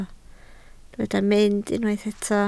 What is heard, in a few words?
"mynd", Welsh